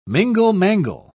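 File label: "mingle-mangle", British English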